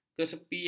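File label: "kesepian", Malay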